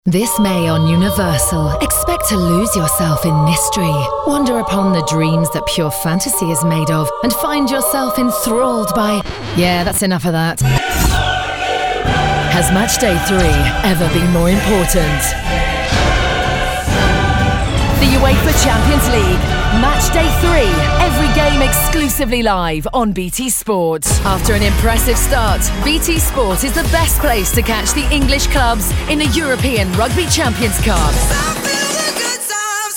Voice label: TV Promo showreel